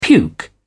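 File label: "puke", British English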